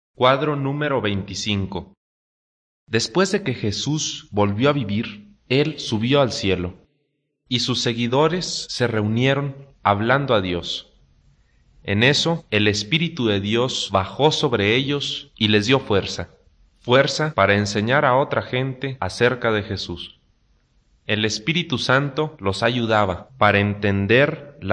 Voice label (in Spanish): good news spanish mexico